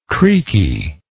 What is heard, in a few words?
"creaky", English